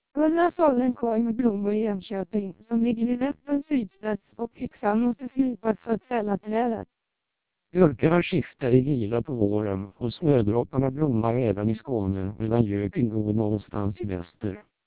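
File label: TWELP 400 bps Vocoder, Swedish speech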